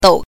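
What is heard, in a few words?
"dou", Mandarin Chinese, neutral tone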